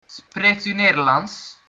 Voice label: do you speak, dutch

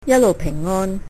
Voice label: bon voyage, cantonese

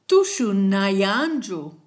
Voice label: dance, kichwa

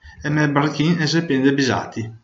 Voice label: hovercraft, Venetian

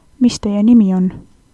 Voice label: name, estonian; Mis teie nimi on?

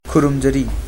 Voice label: hello, manipuri

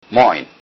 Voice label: hello, lowsaxon

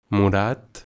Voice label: "purple", Catalan

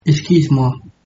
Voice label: excuse me, mauritian creole

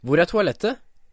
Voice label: "toilet", Norwegian

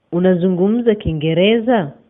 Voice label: do you speak english swahili

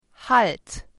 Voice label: "stop", german

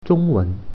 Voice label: "zhongwen", Mandarin

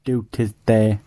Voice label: good evening, gwentian

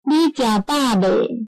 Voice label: have you eaten taiwanese

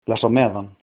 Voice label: goodbye, icelandic

